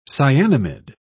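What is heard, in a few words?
"cyanamid", British English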